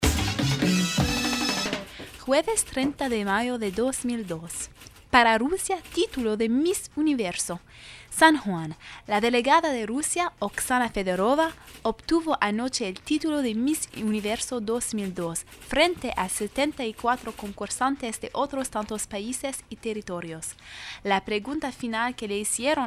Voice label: Spanish News